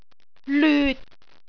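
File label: "lyut6", Cantonese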